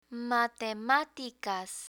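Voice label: "matematicas", Spanish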